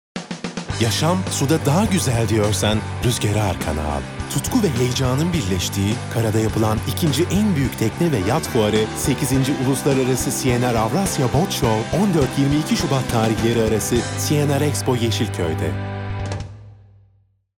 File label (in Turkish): kampanya tempolu; seslendirme